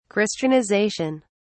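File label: "christianization", British English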